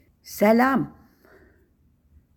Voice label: "Сəлам!", Tatar